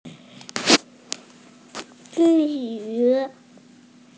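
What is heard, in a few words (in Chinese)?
"jin1yu2", Mandarin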